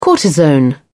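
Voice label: "cortisone", British English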